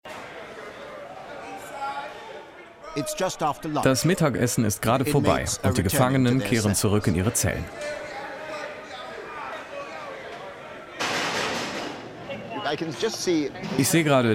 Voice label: OFF Overvoice State Prison Indiana; LOFT VOICES

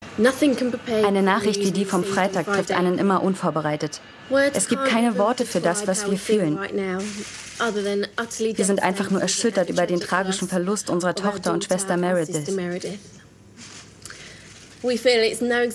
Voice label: voice over; amanda knox

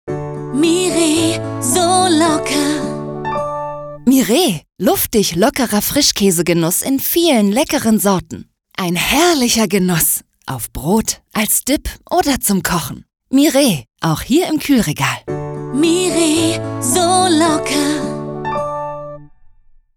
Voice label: POS Spot

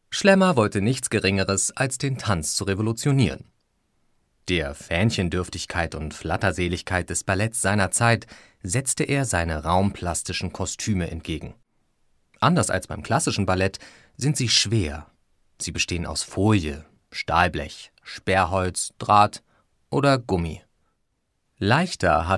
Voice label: Audioguide; Moved by Schlemmer

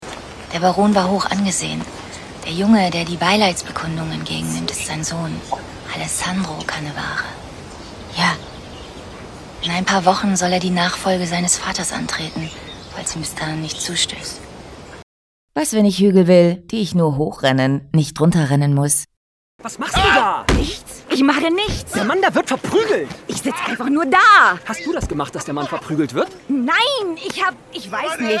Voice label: German; Germany

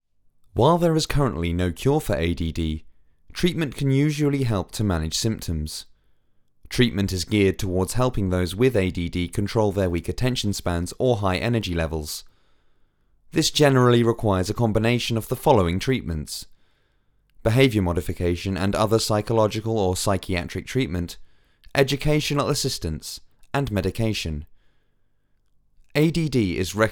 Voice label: factual; LOFT VOICES

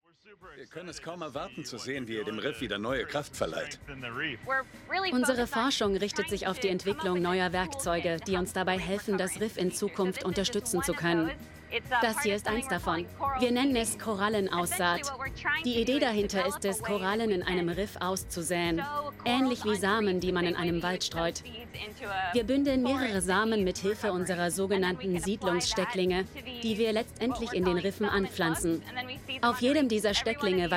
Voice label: Voice Over; Dr. Carly; Down to Earth with Zac Efron; LOFT VOICES

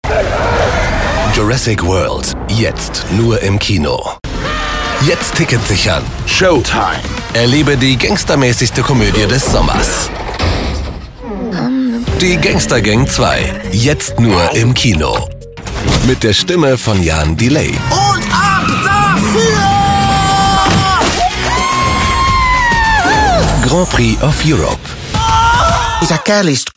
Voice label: Cinema TV Campaigns, German; voice; Werbekampagne: Jurassic World, Gangster Gang 2, Grand Prix of Europe

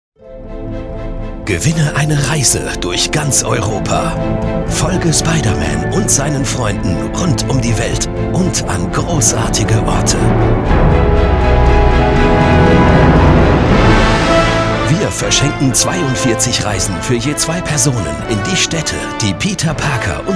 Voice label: ad promo young; Spiderman; LOFT VOICES

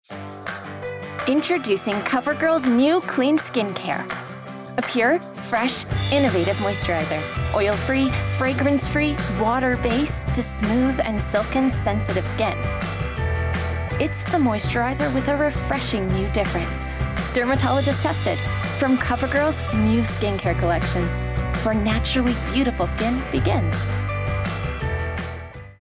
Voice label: Commercial; Cover Girl

